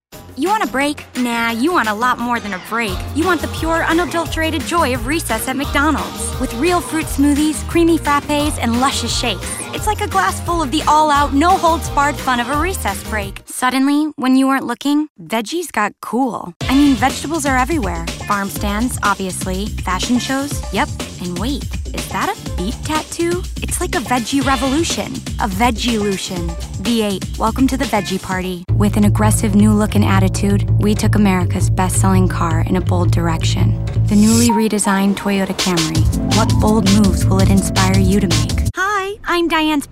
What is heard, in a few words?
Voiceover, Commercial, Women; Commercial Demo; new york